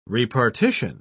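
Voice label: "repartition", British English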